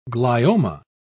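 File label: "glioma", British English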